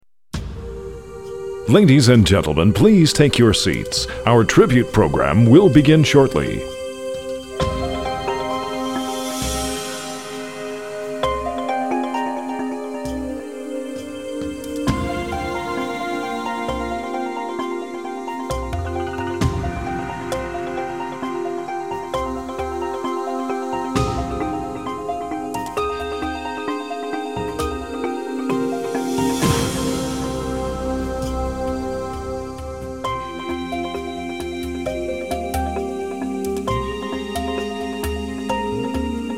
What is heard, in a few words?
announcement; FPT